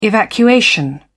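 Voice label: "evacuation", British English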